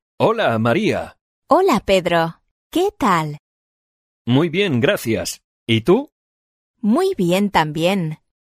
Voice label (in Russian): urok dialog